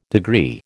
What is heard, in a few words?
"degree", American